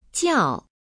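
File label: "jiao", Chinese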